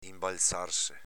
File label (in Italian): "inbalzarse", dialetto veneto